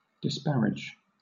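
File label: "disparage", British English; pronunciations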